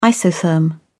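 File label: "isotherm", British English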